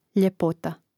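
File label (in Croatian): "ljepota", Croatian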